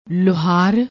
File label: "loohar", Punjabi